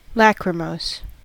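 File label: "lachrymose", American English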